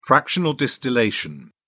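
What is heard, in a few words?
"fractional distillation", English, British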